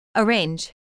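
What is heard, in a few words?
"arrange", English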